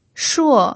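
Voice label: "shuo", Mandarin Chinese pinyin, fourth tone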